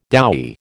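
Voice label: "doughy", American English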